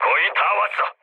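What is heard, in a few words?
Korean near waypoint mood low; War Thunder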